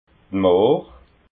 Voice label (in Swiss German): "mohr", alsace